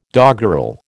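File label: "doggerel", American English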